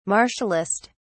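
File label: "martialist", British English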